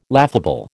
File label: "laughable", American